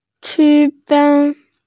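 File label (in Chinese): "qu1fen1", Mandarin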